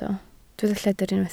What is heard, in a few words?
"lledr", Welsh